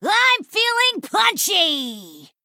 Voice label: Female Pitcher Play